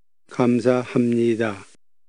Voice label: thank you korean